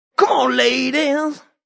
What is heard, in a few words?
Vocal, Funk vocal loop sample.; Loop Vocal CmonLadies 110bpm